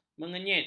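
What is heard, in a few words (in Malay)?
"mengenyit", Malay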